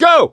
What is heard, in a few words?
emphatic go, voice; gambler